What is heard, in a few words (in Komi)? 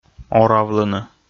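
"оравлыны", Komi, male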